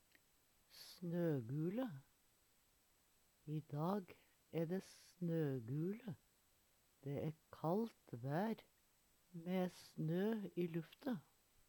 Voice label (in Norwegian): snogule, Numedalsmål; Vêr og føre; eit verfenomen; Veggli